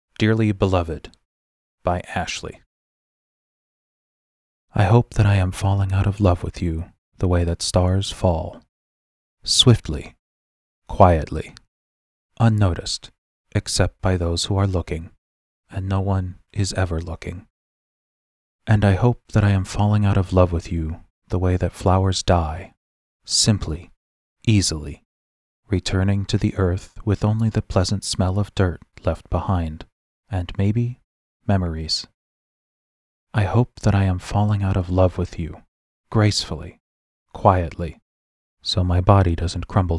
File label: reading